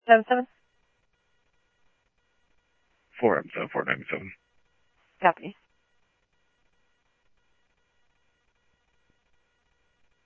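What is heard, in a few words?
Mesa police department, scanner audio trunk; Mesa Simulcast; MESA PD Superstn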